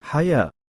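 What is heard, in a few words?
"7ayaa", Arabic; taa marbuuTa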